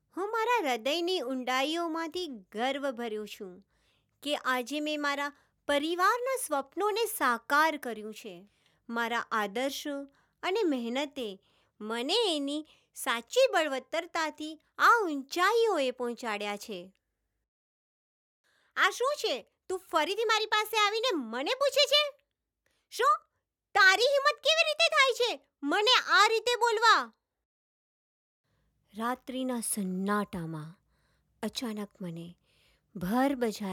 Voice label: Gujarati, F, Narration

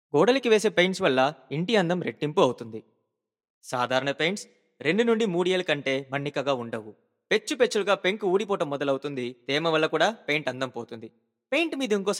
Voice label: Telugu, Narration

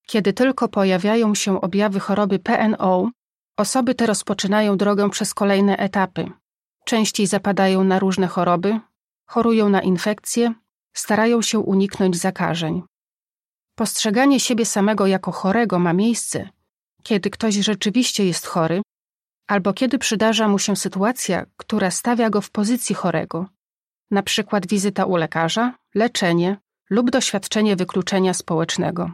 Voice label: Polish F Corporate training

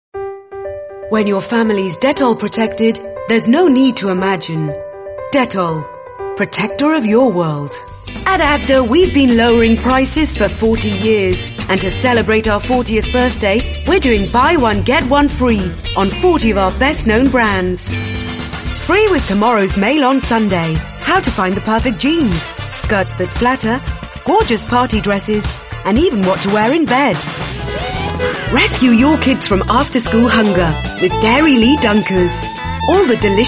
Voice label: English F Commercial; accent of wales

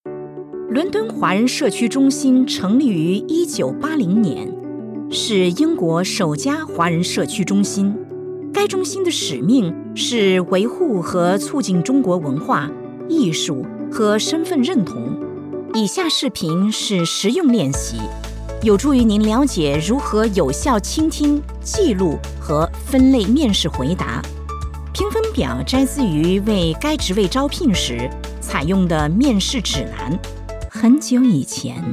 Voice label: Chinese Mandarin F Showreel; voice over

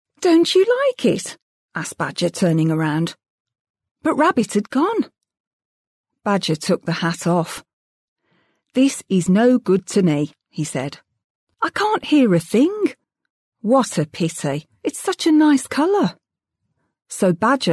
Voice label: English F Character; accents of england

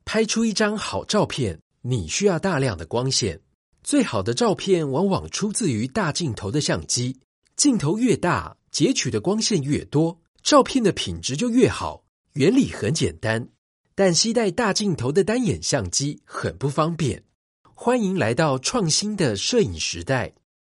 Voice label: Taiwanese Mandarin M dry

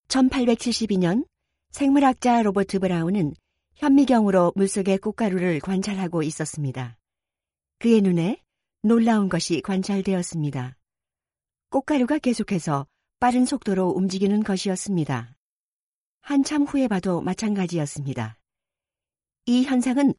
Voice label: Korean F eLearning; korean accent

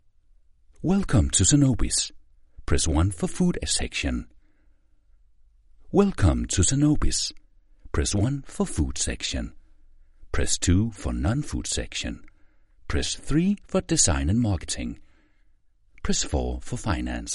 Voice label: Danish M IVR English, accent of denmark

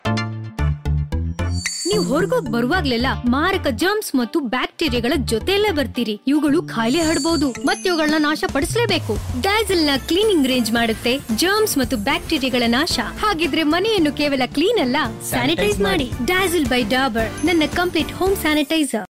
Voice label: Kannada

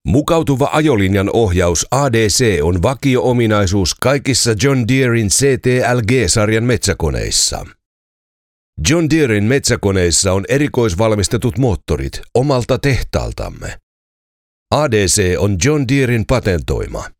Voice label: Finnish M Corporate; voice over

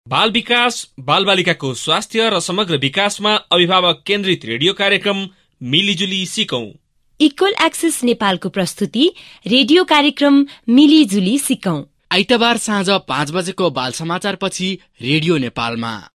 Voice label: Nepalese

